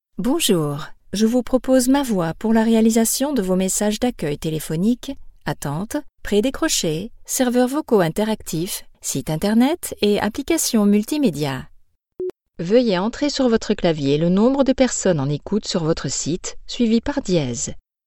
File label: Telephone French